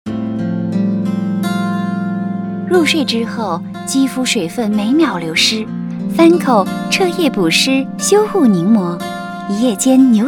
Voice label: Cosmetics TVC